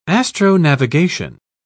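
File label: "astronavigation", British English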